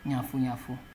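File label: "yanfu yanfu", Nigerian Pidgin, male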